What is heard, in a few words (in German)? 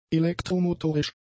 "elektromotorisch", German; adjectives